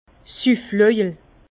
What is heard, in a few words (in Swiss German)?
"sufflojel", Bas Rhin; vin; tonnelet à boire soûlard